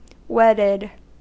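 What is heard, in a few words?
"wedded", American English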